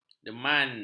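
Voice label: "deman", Malay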